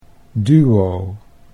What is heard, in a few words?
"duo", Dutch